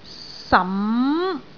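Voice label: "sam2", Cantonese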